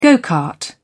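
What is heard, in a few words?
"go-kart", British English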